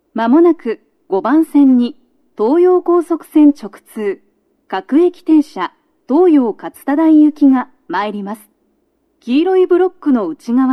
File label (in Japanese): 接近放送 女声, 各駅停車 東葉勝田台行; 5番線 東葉勝田台・津田沼方面; 鳴動は、やや遅めです。; 収録者:こたけ